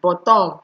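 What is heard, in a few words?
"bottom", Nigerian Pidgin, female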